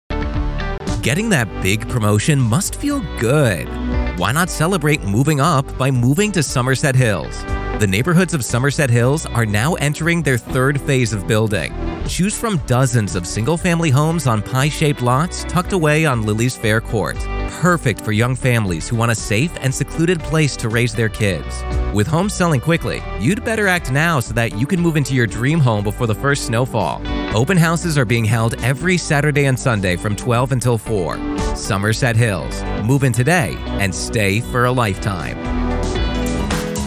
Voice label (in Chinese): 外籍男英 外语 美式英语 广告 活力